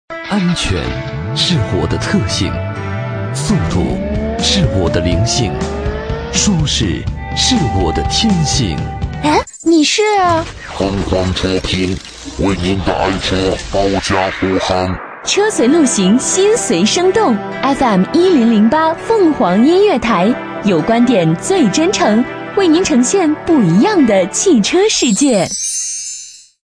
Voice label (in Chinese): 男 广告 车评; 凤凰车评